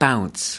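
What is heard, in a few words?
"bounce", US English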